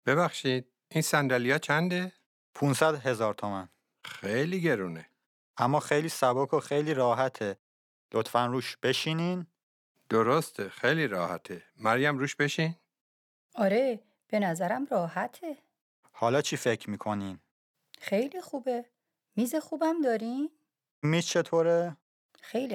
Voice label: Dialogue lesson Farsi